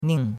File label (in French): "ning4", chinois; Cours-de-Chinois